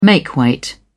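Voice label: "makeweight", British English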